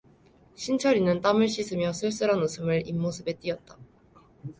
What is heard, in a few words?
korean